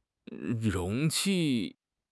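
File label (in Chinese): "容器", Chinese; HSK6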